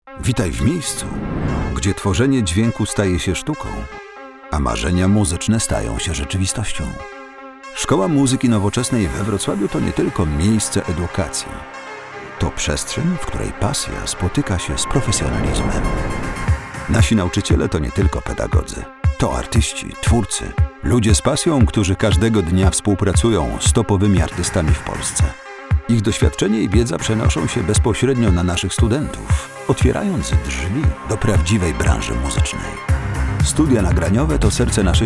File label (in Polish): narracja; Folio